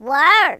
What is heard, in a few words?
jump, speech, Gangsta